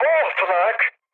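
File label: damaged aa, mood med, Turkish; War Thunder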